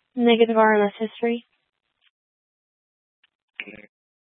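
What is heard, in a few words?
Tempe Police Department, scanner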